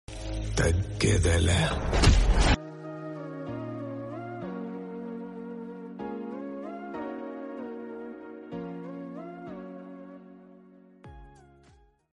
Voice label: telugu dialogue; pushpa